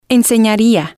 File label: "ensenaria", spanish